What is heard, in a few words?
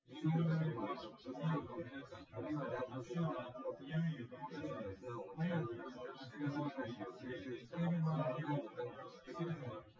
male synth conv 250ms 5cm sim